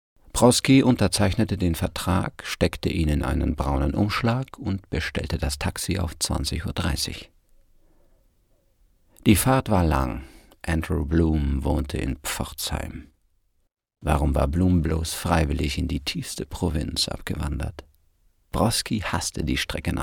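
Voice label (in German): Hörbuch; krimi schlafzug bruder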